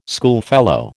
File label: "schoolfellow", American